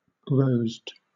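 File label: "rosed", British English; pronunciations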